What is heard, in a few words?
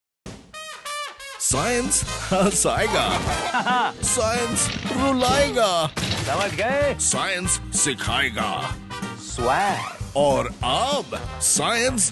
Commercial